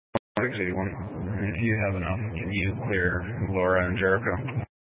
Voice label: Sheriff Dispatch, scanner; Mesa County